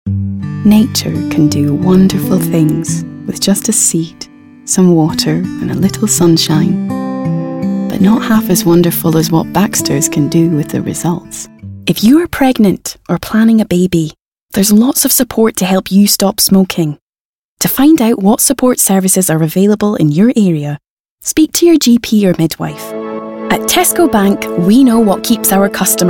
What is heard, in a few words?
Advert